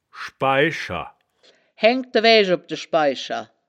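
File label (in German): "schbai-scher", wittlicher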